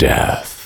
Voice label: death, voiceover

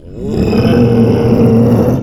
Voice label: boo mad

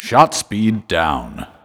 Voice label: shot speed down, voiceover

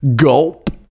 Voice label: gulp, voiceover

